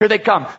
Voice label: here it comes british bloke